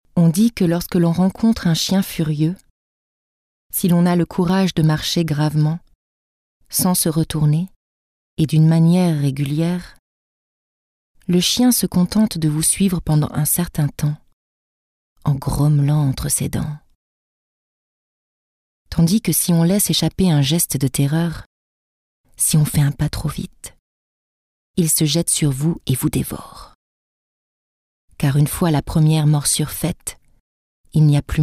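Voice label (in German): French hoerbuch, voicesamples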